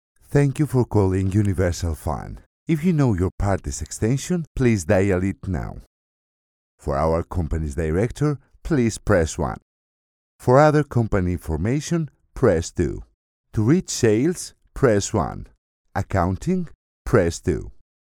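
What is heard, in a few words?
Greek Accented English; GoLocalise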